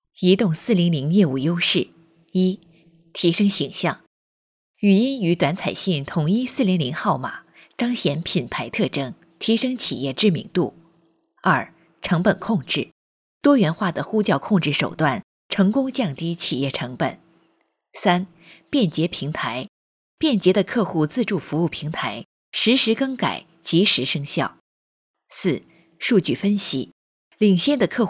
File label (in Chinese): 女声 课件样音; 国语女声配音